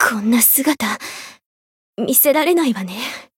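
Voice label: Voice win barely, Japanese; hisumena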